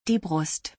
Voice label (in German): "die Brust", German; body parts; Visual Dictionary